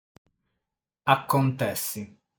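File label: "Acontessi", Sardinian word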